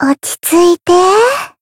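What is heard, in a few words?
heal, Japanese; soira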